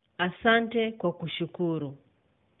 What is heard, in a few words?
you're welcome, swahili